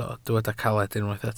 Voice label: "caled", Welsh